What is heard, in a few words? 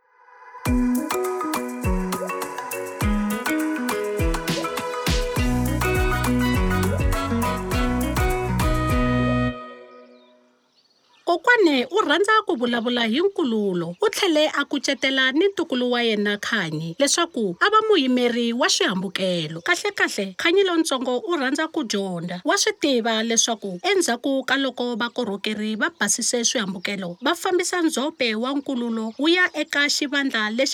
Tsonga; Sanitation; Treatment; DWS